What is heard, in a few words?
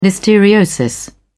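"listeriosis", British English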